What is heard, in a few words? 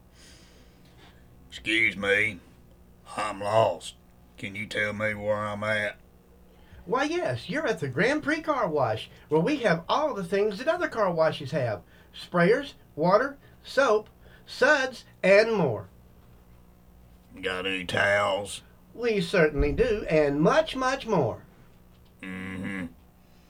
Carwash Commercial